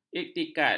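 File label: "iktikad", Malay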